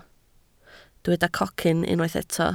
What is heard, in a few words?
"cocyn", Welsh